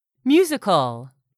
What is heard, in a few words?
"musical", English; 3000 words